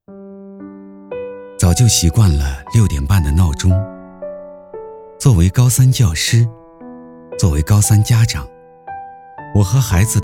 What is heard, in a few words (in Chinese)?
男 独白 走心独白